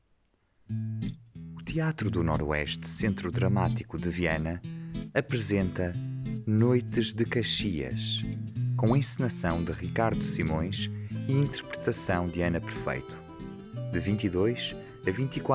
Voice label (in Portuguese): spot